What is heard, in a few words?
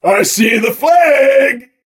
seen flag, soldier